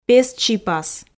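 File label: "пес чипас", Komi, female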